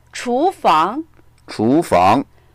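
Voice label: "chu2fang2", Mandarin Chinese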